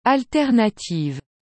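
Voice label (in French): "alternative", French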